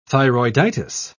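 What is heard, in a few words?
"thyroiditis", British English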